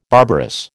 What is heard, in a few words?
"barbarous", American